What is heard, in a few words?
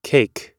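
"cake", US English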